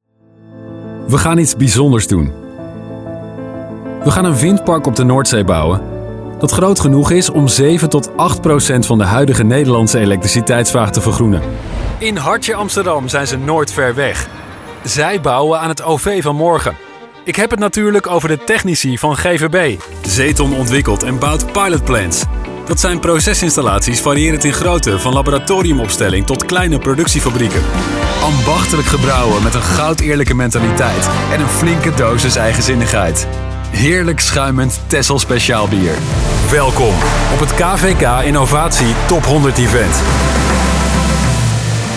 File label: dutch commercial; Niederländische Sprecherstimme: Business, Zuverlässig & Positiv